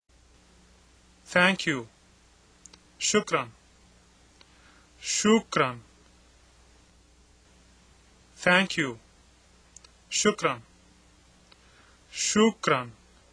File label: Arabic Thanks; シュクラン; アラビア語; ありがとう